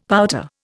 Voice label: "pouter", Indian; Dictionary